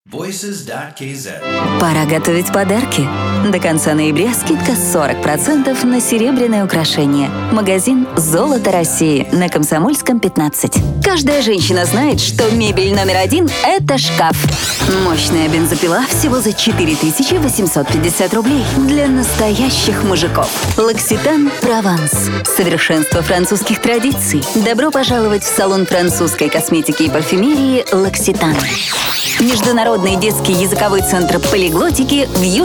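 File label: Russian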